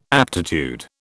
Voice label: "aptitude", British

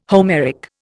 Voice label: "Homeric", Indian

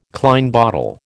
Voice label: "Klein bottle", American

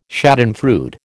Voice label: "schadenfreude", American

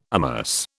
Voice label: "amerce", British

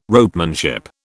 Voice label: "ropemanship", British

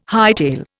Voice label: "hightail", Indian

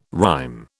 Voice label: "rhyme", British

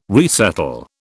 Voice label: "resettle", British

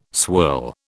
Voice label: "swirl", British

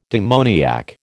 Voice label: "demoniac", American

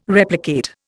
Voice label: "replicate", Indian

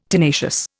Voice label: "tenacious", Indian

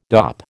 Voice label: "dop", American

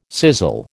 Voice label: "sizzle", American

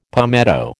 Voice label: "palmetto", American

